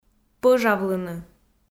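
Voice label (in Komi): "пӧжавлыны", Komi, female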